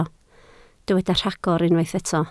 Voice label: "rhagor", Welsh